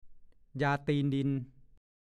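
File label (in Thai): "ยาตีนดิน" ภาษาโคราช; ยาเส้นที่ทำจากใบตรงช่วงโคนต้นยา หรือติดกับดิน รสชาติจะจืดชืดไม่เป็นที่นิยม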